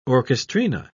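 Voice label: "orchestrina", English, British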